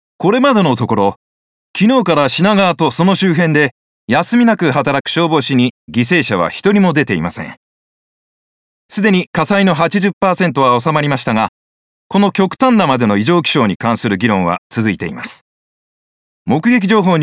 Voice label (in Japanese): 現場レポート